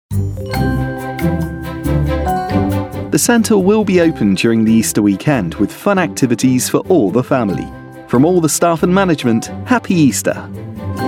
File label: Easter Male